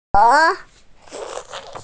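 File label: "zhe2", Mandarin Chinese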